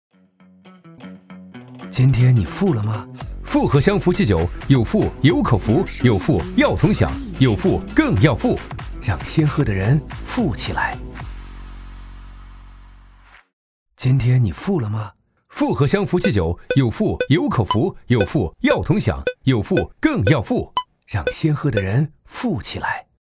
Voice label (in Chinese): 广告·酒类·机灵; 福气酒